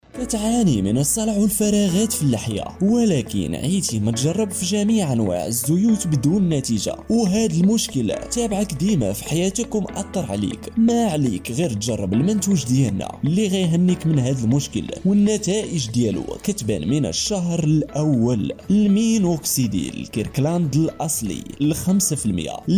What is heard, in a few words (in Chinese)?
阿拉伯语男声